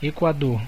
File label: "ecuador", pt BR; gcompris; geography